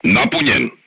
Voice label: voice message charged, serbian crew tanks

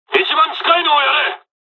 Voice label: dialogs japanese; us midway; War Thunder

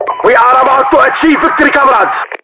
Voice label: winning, voiceovers english

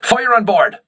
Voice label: voice message fire on board, crew ships english

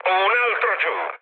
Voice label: player killed enemy mood med, speech it; War Thunder

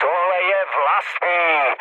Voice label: player friendly fire, speech cze, mood low

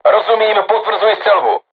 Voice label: voice message art shoot, crew tanks czech

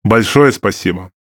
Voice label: RU Speech Quick Commands Thank You; World Of Warships; USSR Ovechkin

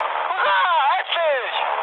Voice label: enemy detected, mood high, speech ge; War Thunder

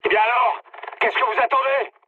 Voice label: attack position mood med, speech fr; War Thunder

